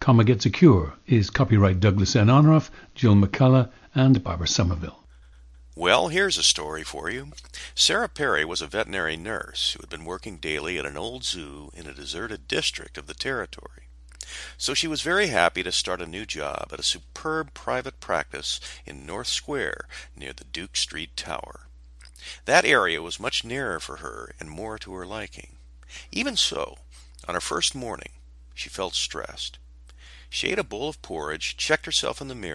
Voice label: General American

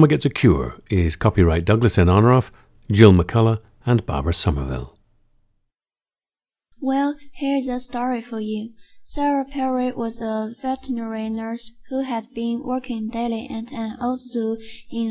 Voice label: gansu

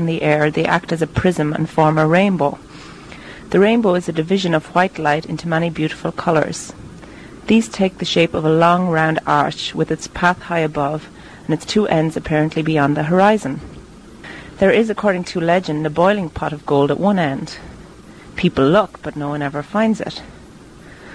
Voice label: ireland, dialects

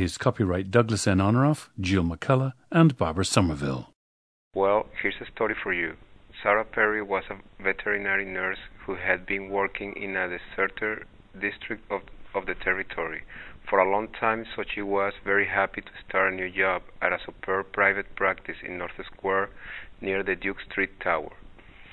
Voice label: venezuela

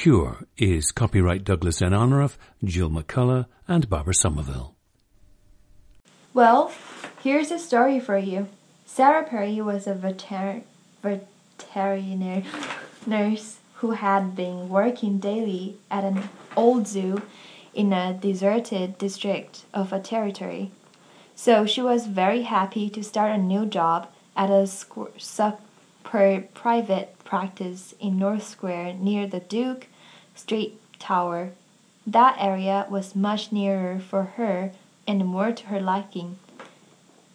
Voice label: sichuan

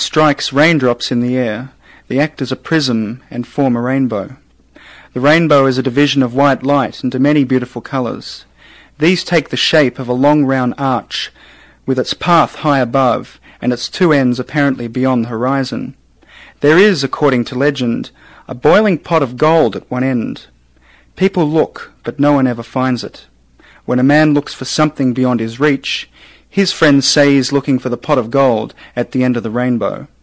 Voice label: australia

